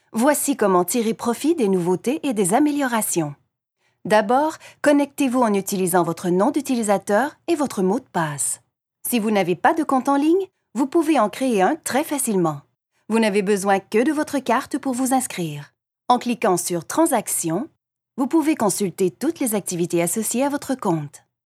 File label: E-Learning